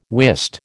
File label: "wist", American